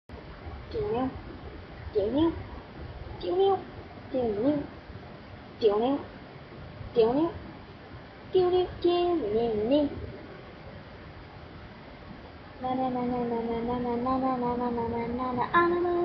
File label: narration; anim+spex